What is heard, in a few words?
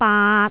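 "baat3", Cantonese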